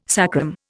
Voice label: "sacrum", Indian

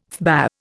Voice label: "FBA", Indian

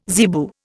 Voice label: "zebu", Indian

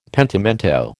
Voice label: "pentimento", American

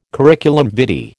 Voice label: "curriculum vitae", American

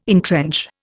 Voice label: "entrench", Indian

